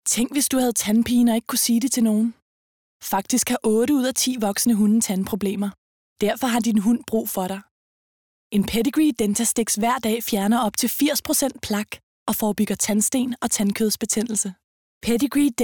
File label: female danish commercial; devine voices